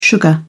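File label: "sugar", British English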